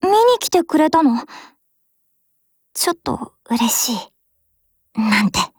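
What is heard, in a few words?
Japanese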